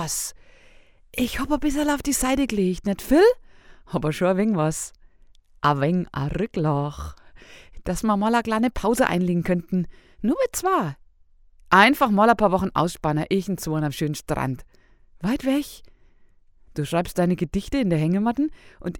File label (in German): frankisch gerade aus